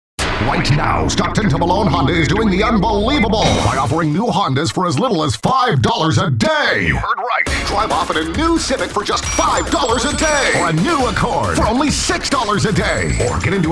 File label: Hard Sell, Car Dealers